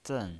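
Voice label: "zen" Mandarin Chinese pinyin voice, fourth tone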